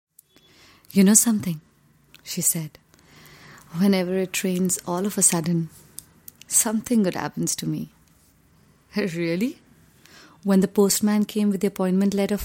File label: Indian English Voice Over, Female